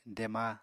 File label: "dema", Apatani